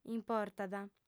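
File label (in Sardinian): "importat", female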